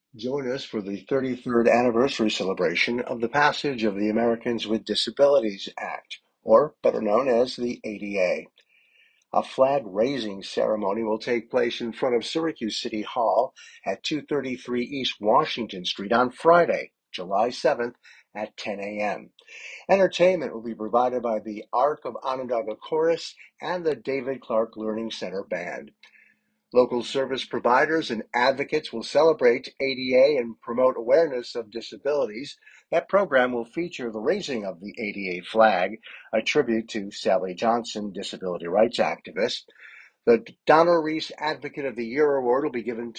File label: voice over; ADA